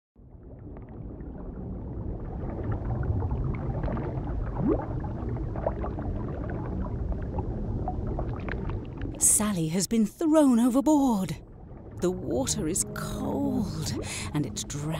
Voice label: TRAILER AUDIO; Sally and The Singing Whale